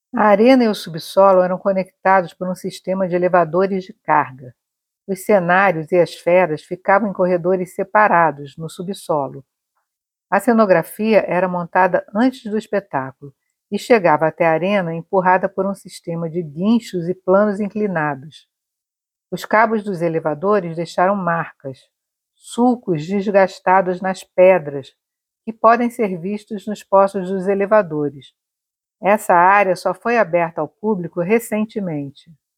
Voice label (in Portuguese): Audioguia; Coliseu; Elevadores